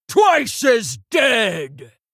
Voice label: Female Tauren Attack

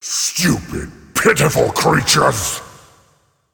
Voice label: Male Demon

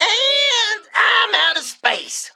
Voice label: Male Mech error hand full

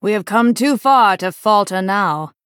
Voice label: Female Night Elf

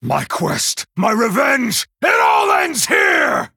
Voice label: Male Night Elf Play

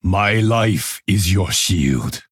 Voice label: Male Pandaren

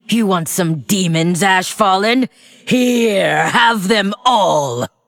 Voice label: Female Undead In Game; Lich Tamsin; If Kurtrus Played

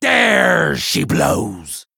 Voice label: Male Troll Play

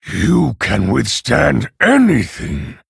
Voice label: Male Orc Ogre Well Played

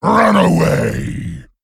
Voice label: Male Worgen Attack

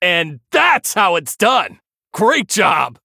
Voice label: Male Human Combat Win

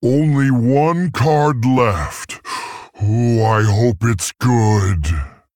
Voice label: Male Tauren Low Cards

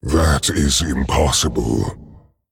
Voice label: Male Dragon ERROR GENERIC

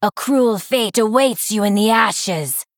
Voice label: Female Broken Play

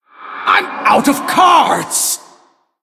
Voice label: Male Human No Cards; Anduin Deathknight

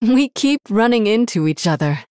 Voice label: Female Human GREETINGS

